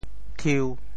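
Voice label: "thiu1", Teochew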